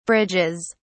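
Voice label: "bridges", English, British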